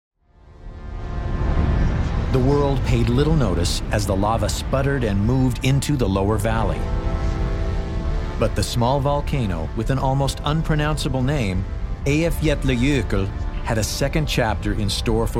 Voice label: narration